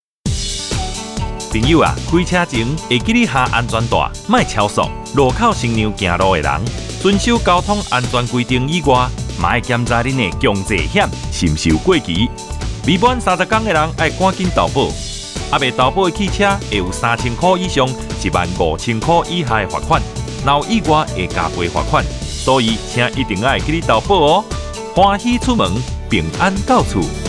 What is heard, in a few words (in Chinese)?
汽車族投保與交通安全篇(台語); 11月30日起，微型電動二輪車新車須投保強制險才能上路，現行已領有合格標章行駛上路的微型電動二輪車，應該在施行後2年內投保強制險。